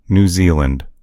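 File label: "new zealand", English; gcompris; geography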